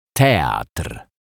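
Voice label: "teatr", Polish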